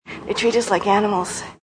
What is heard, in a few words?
Like Animals, Female; Talking Sound Effects